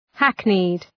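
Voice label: "hackneyed", English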